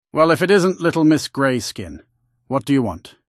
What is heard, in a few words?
male commoner accented; Skyrim Special Edition; Windhelm Dialogue Expansion